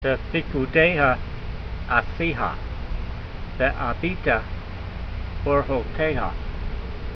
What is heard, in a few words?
voice, hebrew language; Psalm 119:15; bepheekudayha a'see'ha, ve'ab'bee'ta orhotayha